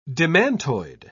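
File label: "demantoid", British English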